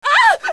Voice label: surprise; infection